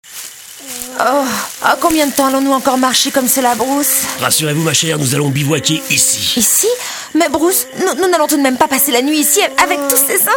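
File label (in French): comedie blonde signature, comédienne voix off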